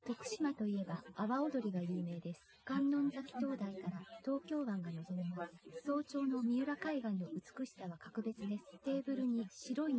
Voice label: female inst; SiSEC08; SiSEC underdetermined